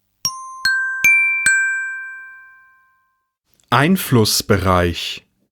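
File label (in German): "Einflussbereich", German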